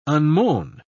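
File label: "unmown", British English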